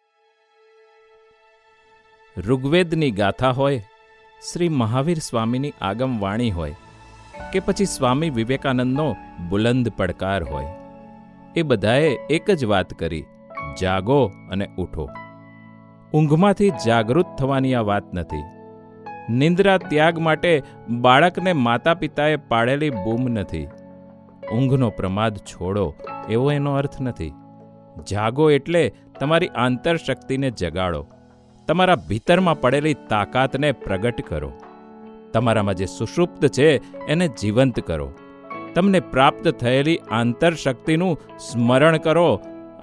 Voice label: motivational narration gujarati

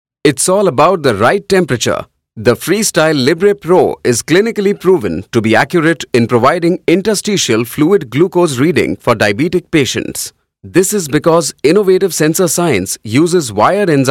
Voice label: E Learning English

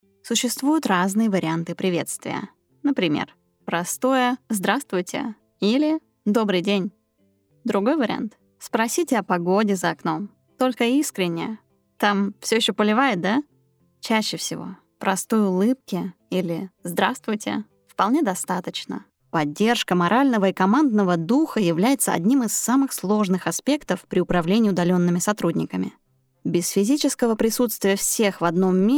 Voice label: E-learning russian, Native Russian

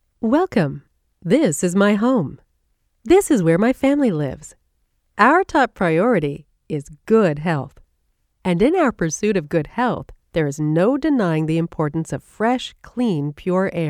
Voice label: explainer

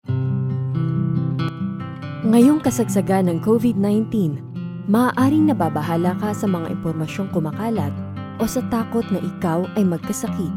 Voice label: tagalog

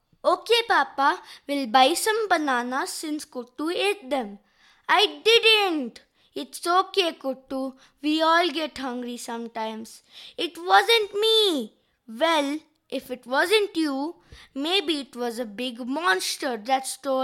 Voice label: BOY ENGLISH, Sharp clear voice with modulat

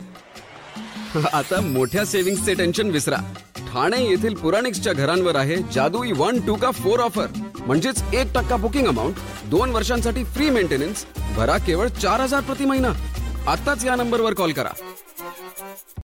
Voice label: TVC MVO Marathi; Puraniks

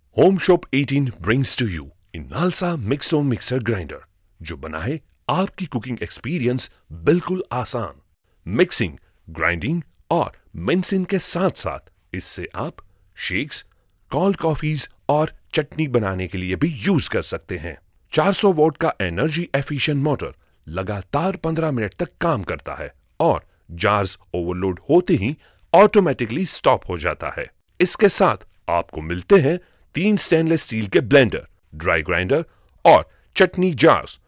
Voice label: teleshopping hindi

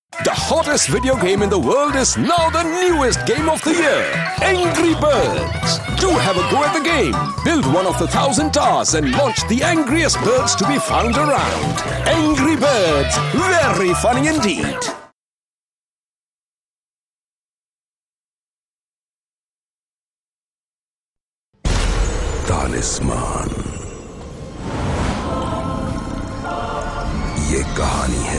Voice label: promo hindi; bajaj

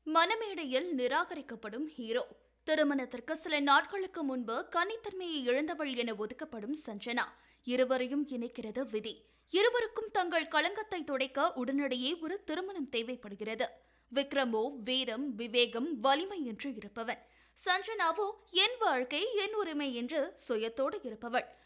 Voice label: promo Tamil

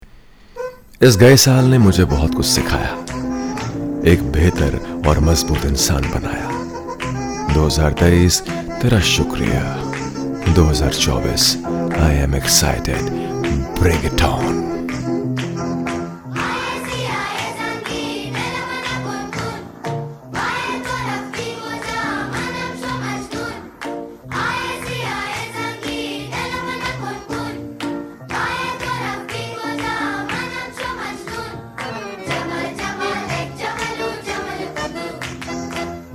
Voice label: HINDI SAMPLE

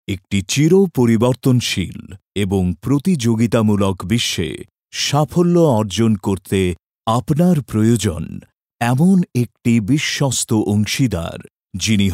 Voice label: BENGALI AdFilm VO; TATA Hitachi

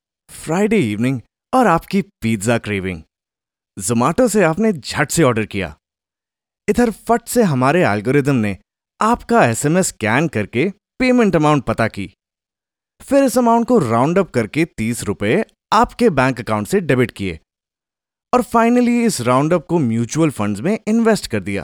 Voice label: hindi

